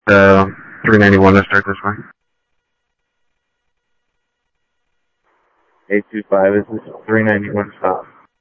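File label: Sheriff West County, scanner audio trunk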